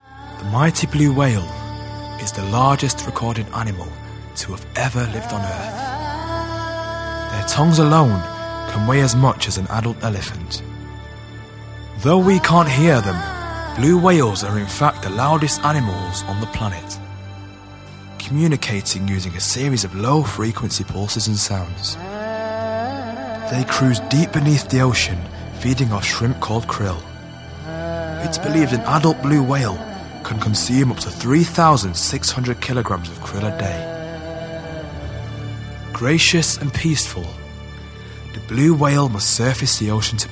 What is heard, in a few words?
Narration